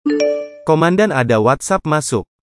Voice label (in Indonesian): nada dering; komandan ada whatsapp masuk